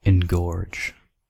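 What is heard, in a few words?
"engorge", US English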